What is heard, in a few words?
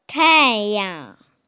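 "tai yang" (sun), Mandarin Chinese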